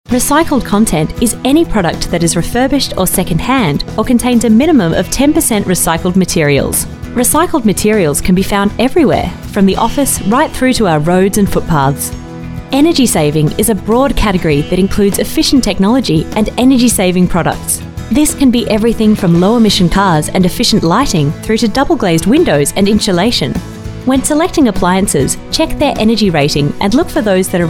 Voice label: female talent corporate